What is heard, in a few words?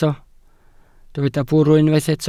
"bwrw", Welsh